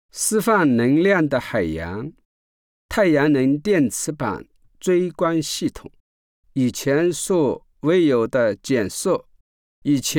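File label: chinese male commercial; voice demos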